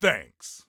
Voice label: Respond Thanks, Soldier Male; Soldier Male 03